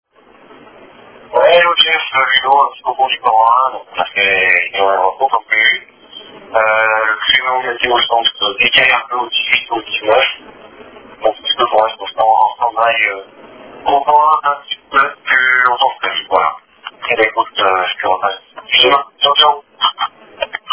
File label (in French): Appel telephonique